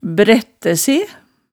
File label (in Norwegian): "brættæ se", hemsedalsmaalet tale